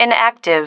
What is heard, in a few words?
"inactive", female